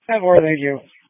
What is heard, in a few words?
Sheriff Dispatch